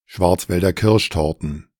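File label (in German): "Schwarzwälder Kirschtorten", German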